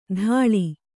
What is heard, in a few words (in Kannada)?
"ಥಾಳಿ", Kannada